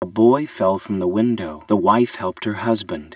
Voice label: reference sentence, dereverb